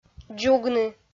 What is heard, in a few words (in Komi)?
"дзугны", Komi